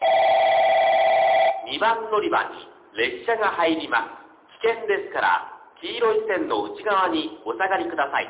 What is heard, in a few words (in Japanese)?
2番のりば接近放送 男声; 1 肥前山口方面; 早岐方面